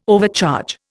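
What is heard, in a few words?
"overcharge", Indian English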